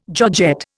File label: "georgette", Indian